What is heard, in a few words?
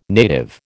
"native", American